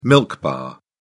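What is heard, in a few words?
"milk bar", British English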